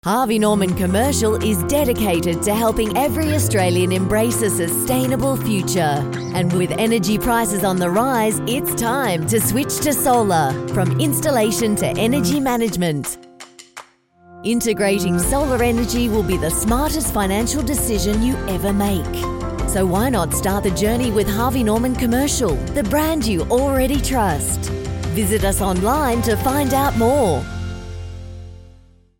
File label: english australian explainer videos